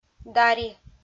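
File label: "дари", Komi, female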